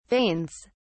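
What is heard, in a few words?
"veins", British English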